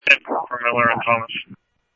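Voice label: Scottsdale Police Department PD1 Scottsdale Police, scanner audio; SCTSDLE PD - PD1; H-Scottsdale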